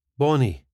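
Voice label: "bonny", Scottish English; bonne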